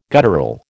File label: "guttural", American